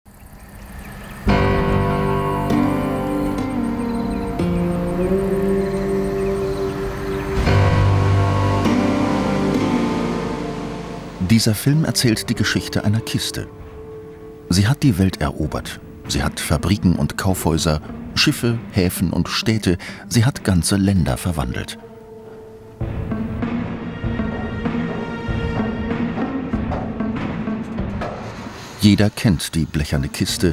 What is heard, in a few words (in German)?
doku, sprecher